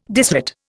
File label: "disrate", Indian